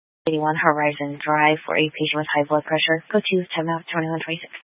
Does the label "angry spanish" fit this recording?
No